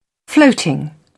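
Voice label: "floating", British English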